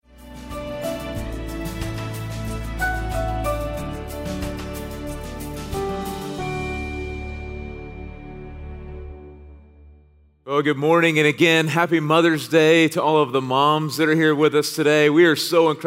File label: Sermon English